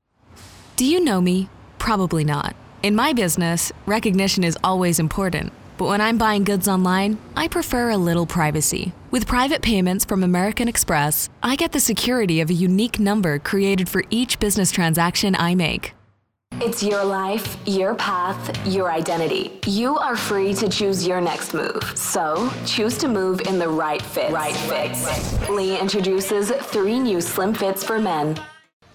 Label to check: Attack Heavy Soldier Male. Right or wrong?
Wrong